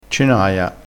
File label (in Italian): "cenaia", crespinese